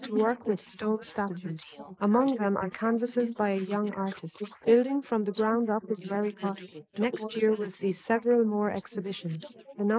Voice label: female inst sim; SiSEC11; underdetermined

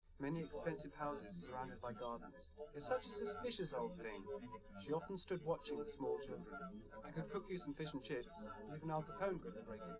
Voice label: male live rec

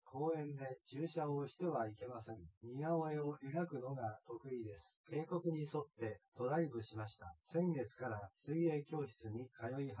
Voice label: male synthconv 130ms 1m

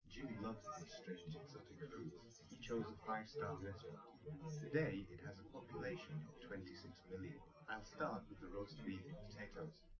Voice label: male, live rec; SiSEC11; underdetermined

